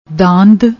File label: "daand", Punjabi